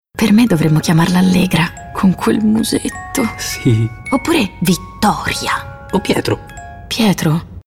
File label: female italian comercial